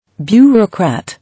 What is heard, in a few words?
"Bureaucrat", English